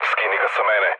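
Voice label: Serbian aircraft damaged mood low, speech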